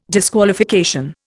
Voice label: "disqualification", Indian